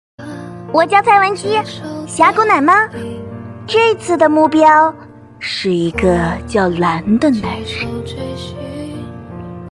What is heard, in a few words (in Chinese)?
角色萝莉御姐转变